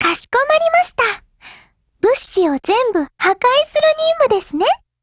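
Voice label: operation begin, Japanese; GF Alarm; Carcano1938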